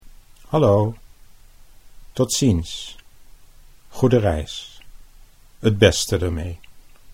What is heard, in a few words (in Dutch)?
"hallo tot ziens", Dutch